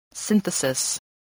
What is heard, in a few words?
"synthesis", English